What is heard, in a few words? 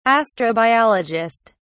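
"astrobiologist", British English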